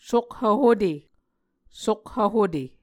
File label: "sokhv hute", Muscogee